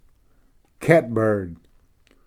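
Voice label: "catbird", English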